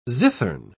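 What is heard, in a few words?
"zithern", British English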